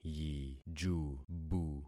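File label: "yijubu", concatenated syllables; Affixing experiment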